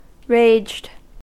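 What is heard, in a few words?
"raged", US English; pronunciations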